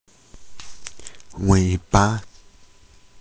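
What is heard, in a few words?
"wei3ba5", Mandarin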